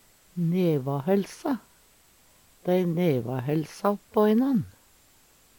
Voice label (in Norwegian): nevahelse, Numedalsmål